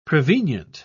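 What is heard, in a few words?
"prevenient", British English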